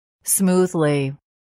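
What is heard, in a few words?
"smoothly", English word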